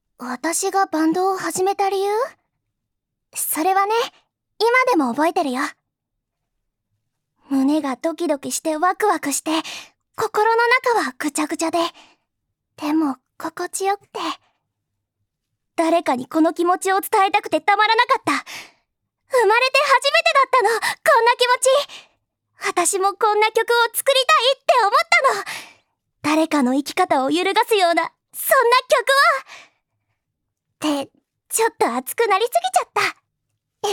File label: girl